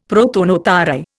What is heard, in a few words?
"prothonotary", Indian; Dictionary